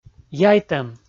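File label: "яйтӧм", Komi, male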